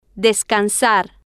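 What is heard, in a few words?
"des-can-sar", Spanish, female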